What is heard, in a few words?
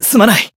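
healed, Japanese; cetie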